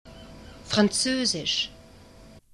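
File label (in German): "französisch" German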